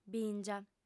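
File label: "bìngia", female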